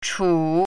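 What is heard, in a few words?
"chu3", Mandarin Chinese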